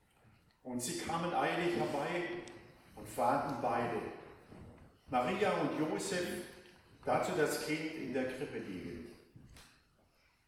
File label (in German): Chor im Advent Ansprache